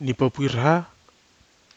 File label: "nipapwirha", Nafe (Kwamera)